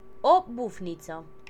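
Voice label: owl, Romanian; animals; birds; tuxpaint-stamps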